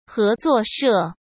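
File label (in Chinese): "合作社", Mandarin Chinese; hsk; chinese-flashcards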